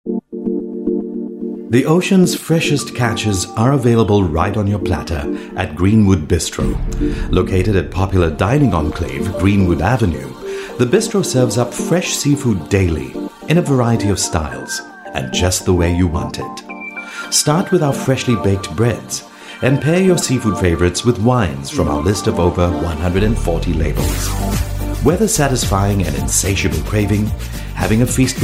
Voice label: Asian English Corporate

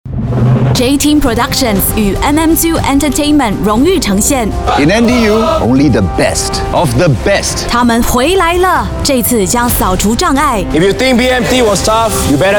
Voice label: Mandarin Female Movie Trailer; China

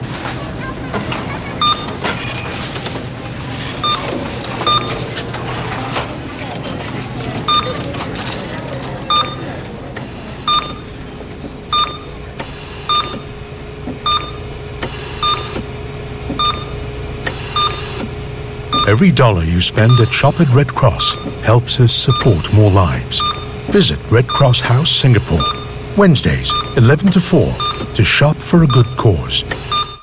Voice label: Asian English Radio; Red Cross

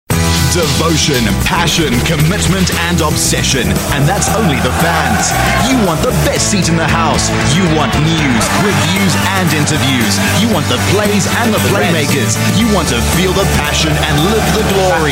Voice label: African English MC SPORT TV, South African Male

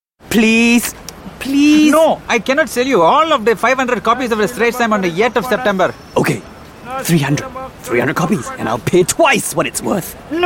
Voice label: English Singaporean Male; Kia Rio

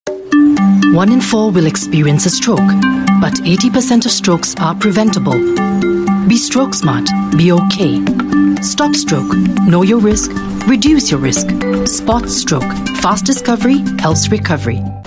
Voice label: Asian English Be Stroke Smart Be OK

